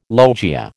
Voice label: "logia", American